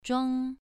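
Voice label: "zhuang2", Chinese pronunciation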